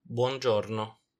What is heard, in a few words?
"buon giorno", Italian